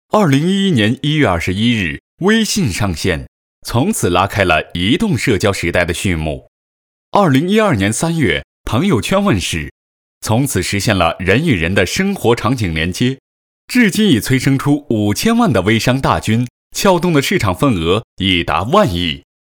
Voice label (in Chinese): 男 专题